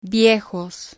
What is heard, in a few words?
"viejos", Spanish